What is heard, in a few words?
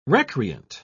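"recreant", British English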